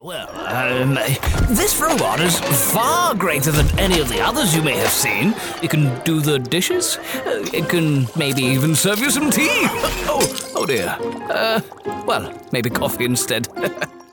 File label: Nutty Professorial, French Voice Actor